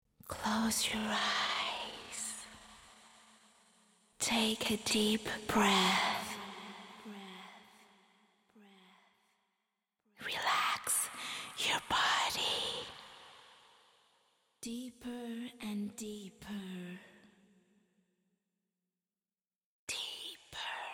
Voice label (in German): Entspannungs Anleitung; relaxation instruction